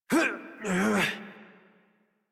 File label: Horse Mount Adult; Warframe; Duviri